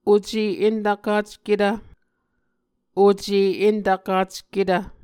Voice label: "oce entvkacketv", Muscogee